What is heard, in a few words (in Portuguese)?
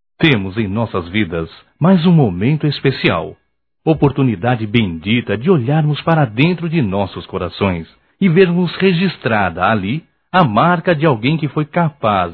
Páscoa Geral Masculino; Telemensagens 2017